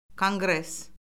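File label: "konqres", Azerbaijani; Orfoqrafiya Lüğəti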